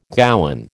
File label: "gowan", American